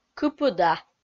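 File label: "кыпыда", Komi-Zyrian, female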